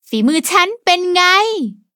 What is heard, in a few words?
levelup, th